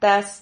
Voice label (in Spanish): "tas", silabas